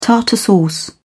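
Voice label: "tartar sauce", British English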